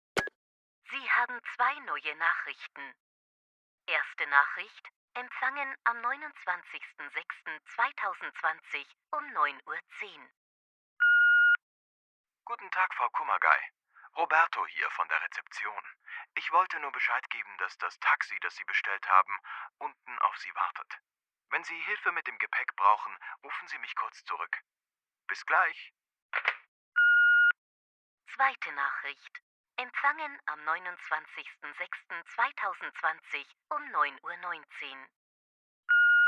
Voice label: Mailbox; Dysturbia; Crime Letter